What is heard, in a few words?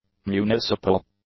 "municipal", English; adjectives